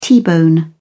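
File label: "t-bone steak", British English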